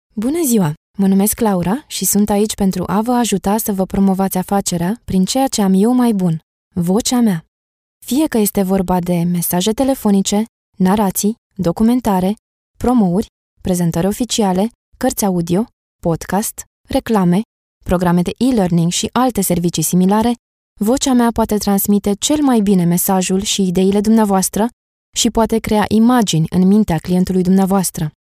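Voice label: Romanian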